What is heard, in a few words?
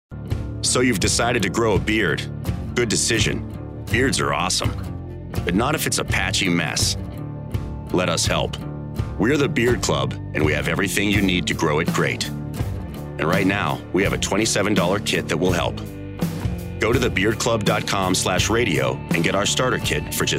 authoritative male voice over; beard club